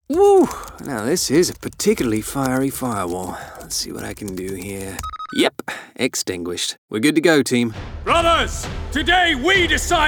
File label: Character